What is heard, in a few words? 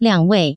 "liangwei", Chinese, Taiwan, restaurant; diancan